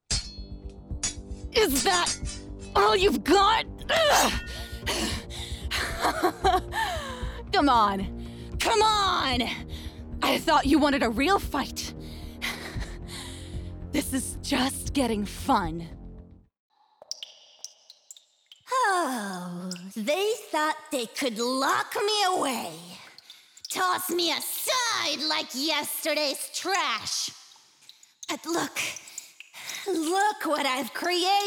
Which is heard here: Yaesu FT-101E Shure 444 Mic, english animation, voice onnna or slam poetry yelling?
english animation